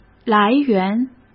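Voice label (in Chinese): "来源", Mandarin Chinese; chinese flashcards; hsk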